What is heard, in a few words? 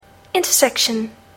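"intersection", English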